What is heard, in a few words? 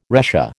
"retia", American